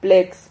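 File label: "plex", Spanish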